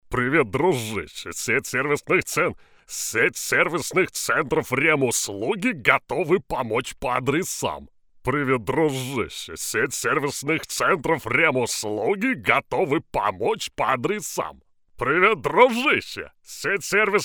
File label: ivr; RemUslugi